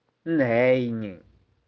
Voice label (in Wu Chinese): 爾咸伊人, 台州語; 你是哪裏人？; 長用短語